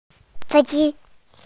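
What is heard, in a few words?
"airplane", Mandarin, hearing-impaired; fei1ji1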